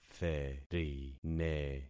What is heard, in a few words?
"therine", concat syllables; Affixing experiment